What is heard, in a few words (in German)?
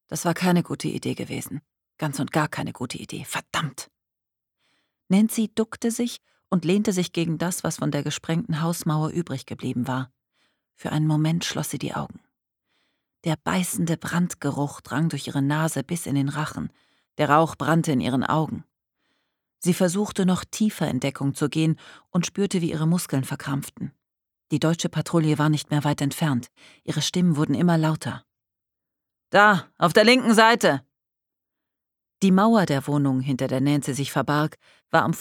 Hoerbuch; Sie Spionin; Imogen Kealey; Audible